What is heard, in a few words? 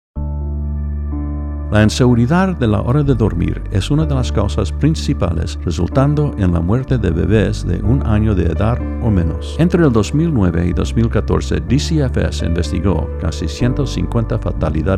SPANISH, public service announcements; safe sleep; DCFS